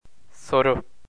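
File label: "soru", Turkish, male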